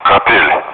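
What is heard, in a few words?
Speech, Russian, air traffic control; ATC; Callsign; DCS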